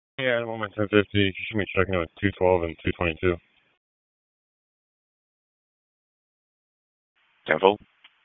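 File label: Raleigh Police Northeast District Dispatch, p25; wake p25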